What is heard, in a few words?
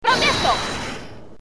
"Protesto", Spanish